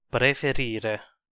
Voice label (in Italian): "preferire", Italian